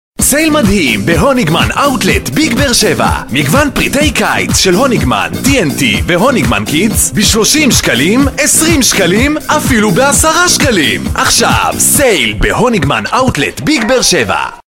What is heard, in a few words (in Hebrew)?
קריינות